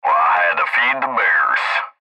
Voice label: Cb Trucker Radio; Radio Voice; Blastwave

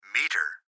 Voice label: Gps Voice, Male; Recorded Voice; Blastwave; Technology

